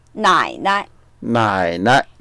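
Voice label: "nai3nai", Mandarin Chinese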